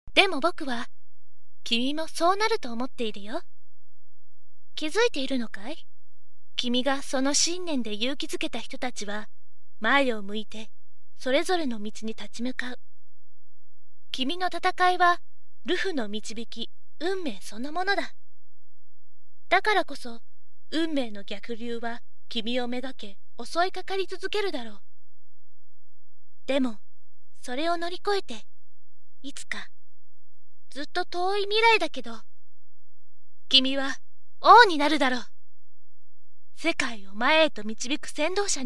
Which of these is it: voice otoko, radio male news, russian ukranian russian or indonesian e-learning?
voice otoko